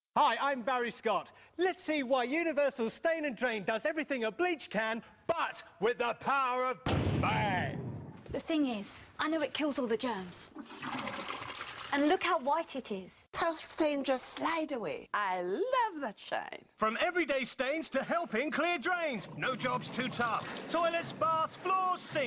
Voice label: Advert; Cillit Bang